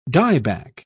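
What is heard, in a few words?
"dieback", British English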